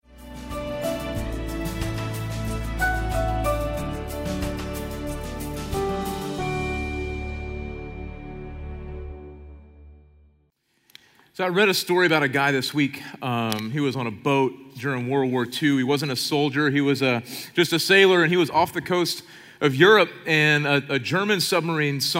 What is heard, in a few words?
Sermon English